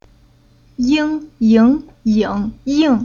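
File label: "Ying", Chinese syllable; Уроки фонетического блока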